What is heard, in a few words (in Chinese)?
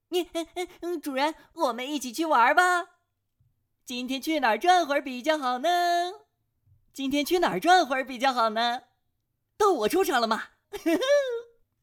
活力狗妖